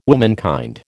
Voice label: "womankind", American

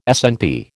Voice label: "SNP", American

